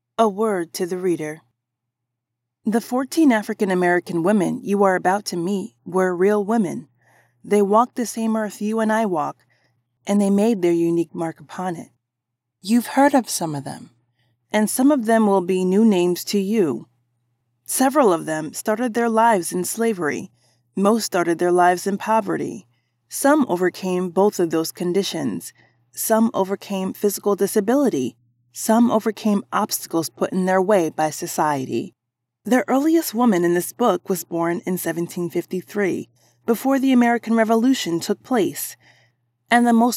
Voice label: NARRATION; Voice over; WOMEN IN BLK HISTORY